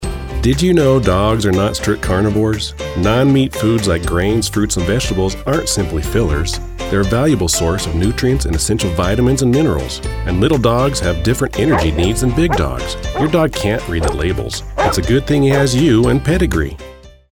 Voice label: voice over; pedigree